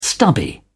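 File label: "stubby", English, uk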